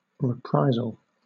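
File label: "reprisal", British English; pronunciations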